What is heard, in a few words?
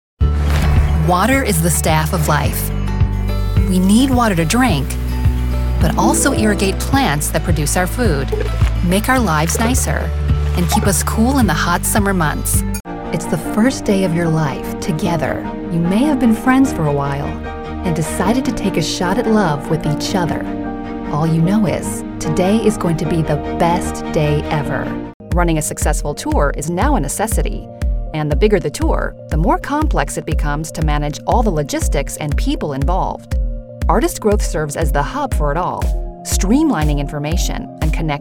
narration